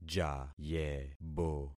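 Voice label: "jayebo", concatenated syllables; Affixing experiment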